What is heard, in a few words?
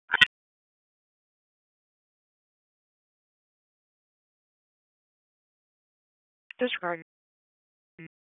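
Wake Communications Center Intersystem, p25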